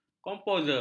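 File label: "komposer", Malay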